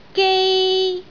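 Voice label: "gei1", Cantonese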